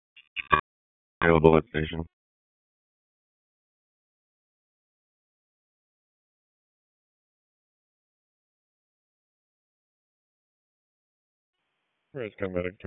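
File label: EMS DISP, radio; wake; p25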